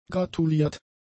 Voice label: "gratuliert", German; adjectives

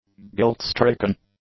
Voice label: "guilt-stricken", english; adjectives

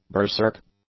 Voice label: "berserk", English; adjectives

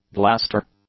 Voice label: "blaster", English

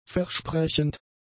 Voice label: "versprechend", German; adjectives

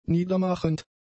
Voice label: "niedermachend", German; adjectives

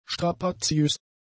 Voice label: "strapaziös", German; adjectives

